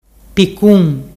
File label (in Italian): picun, dialettu